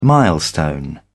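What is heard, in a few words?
"milestone", British English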